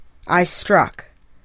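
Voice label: "I struck", English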